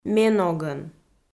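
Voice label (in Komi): "ме ногӧн", Komi, female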